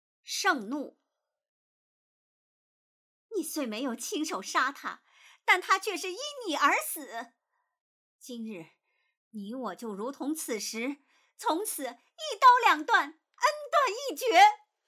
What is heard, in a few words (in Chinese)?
盛怒